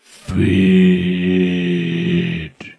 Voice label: "feeed", zombie speech